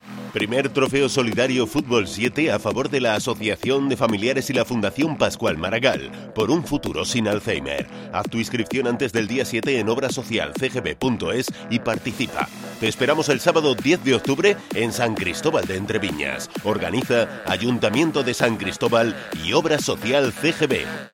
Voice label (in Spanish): Cuña